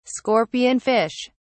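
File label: "scorpion fish", British English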